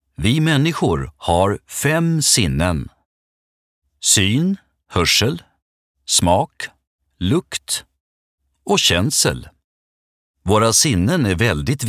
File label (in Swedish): voiceover infofilm foretag trygg